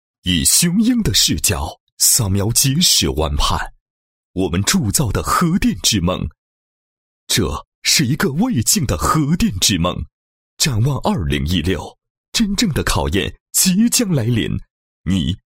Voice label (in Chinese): 男 诗歌 宣传; 核电之梦